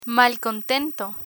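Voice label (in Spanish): "malcontento", Spanish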